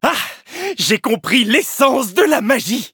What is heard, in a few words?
levelup fr